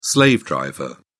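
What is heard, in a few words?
"slave driver", British English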